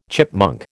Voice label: "chipmunk", American

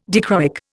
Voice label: "dichroic", Indian

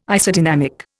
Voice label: "Isodynamic", Indian

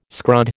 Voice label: "scrod", American

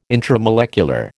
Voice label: "intramolecular", American

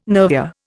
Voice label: "nervure", Indian

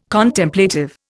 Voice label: "Contemplative", Indian

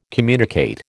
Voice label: "communicate", American

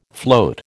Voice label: "float", American

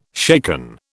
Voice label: "shaken", British

